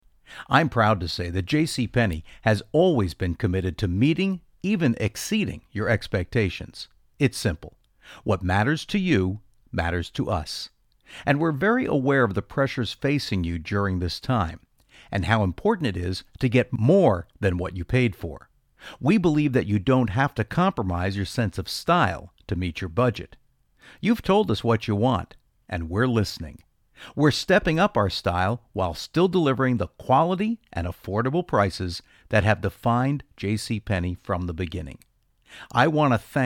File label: phone campaign, voice-over; JCPenney (:37)